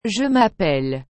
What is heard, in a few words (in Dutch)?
"je m'appelle", frans; appeler